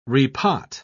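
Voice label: "repot", British English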